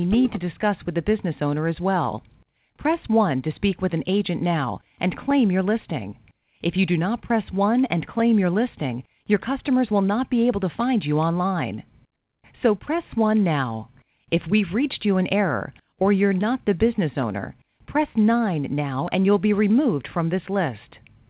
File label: voice message